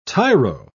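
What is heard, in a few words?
"tiro", British English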